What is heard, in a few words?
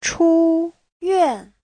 "chu1 yuan4", chinese; 出院 : xuất viện